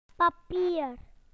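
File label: "paper" British English; PictureDictionary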